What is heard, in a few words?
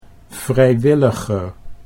"vrijwilliger", Dutch